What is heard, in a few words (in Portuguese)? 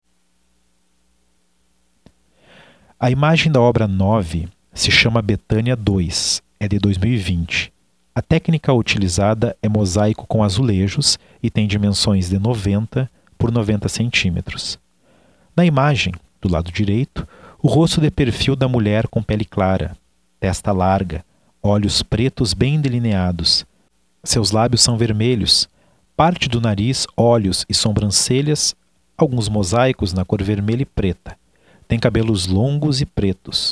audiodescrição; galeria virtual